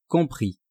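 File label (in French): "compris", French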